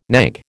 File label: "knag", American